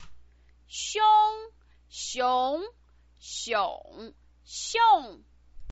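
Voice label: "xiong", Mandarin Chinese pinyin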